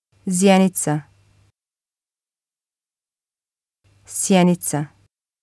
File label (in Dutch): "zjenica", bosnisch